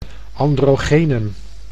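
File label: "androgenen", Dutch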